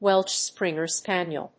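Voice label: "Welsh springer spaniel", English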